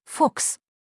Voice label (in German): "Fuchs", deutsch